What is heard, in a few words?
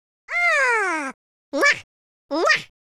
happy, Spanish; speech